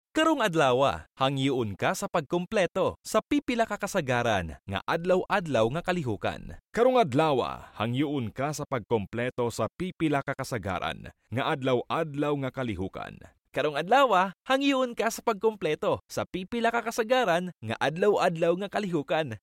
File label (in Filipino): Tagalog commercial